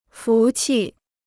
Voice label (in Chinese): "福气", Chinese